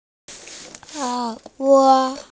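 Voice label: "er3duo5", Mandarin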